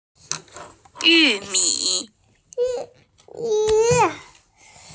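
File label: "yu mi", Mandarin Chinese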